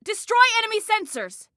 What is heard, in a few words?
Attack Sensors, Female